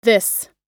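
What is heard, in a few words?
"this", English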